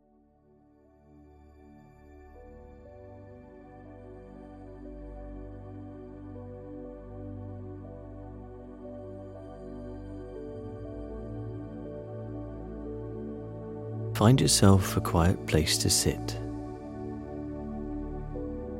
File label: Guided Meditation Example